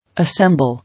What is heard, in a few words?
"Assemble", English